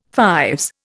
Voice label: "fives", Indian